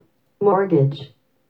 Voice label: speak mortgage en fast